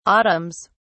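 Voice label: "autumns", English, British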